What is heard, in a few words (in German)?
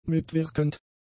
"mitwirkend", German; adjectives